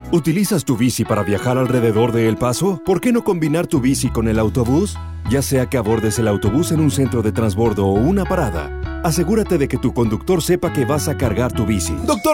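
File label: Spanish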